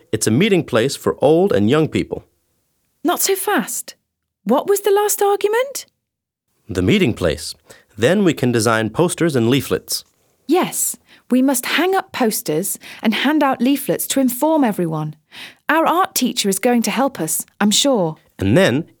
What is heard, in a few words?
Teenagers are fighting against cutting down a tree